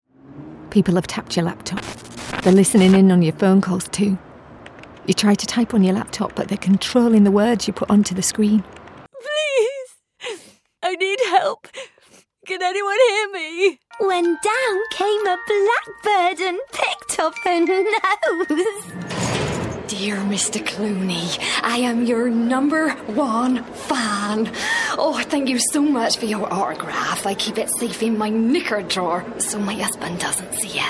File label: Character; VOICEFOX